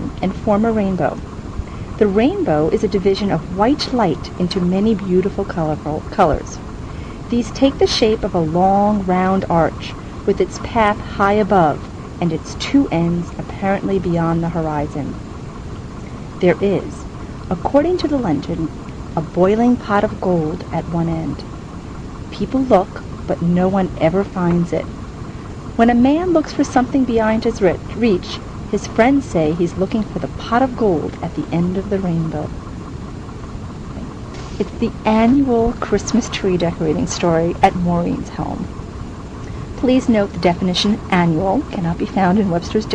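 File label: new york, International Dialects of English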